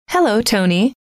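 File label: hello, female; trainer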